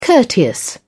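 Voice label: "courteous", British English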